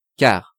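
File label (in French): "car", French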